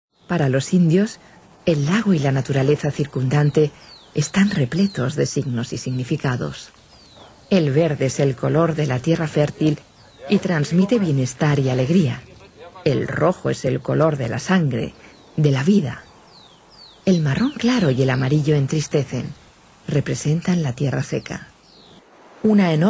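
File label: Spanish docu, Frauen aus aller Welt; Pachamama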